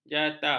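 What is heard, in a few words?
"jata", Malay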